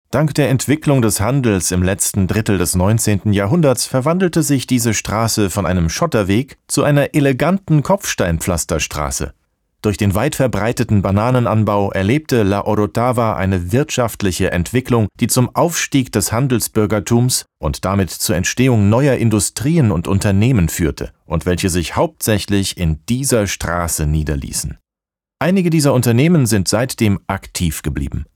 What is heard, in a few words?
german audio guides; actor de doblaje profesional